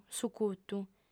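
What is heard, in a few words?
"sucutu", female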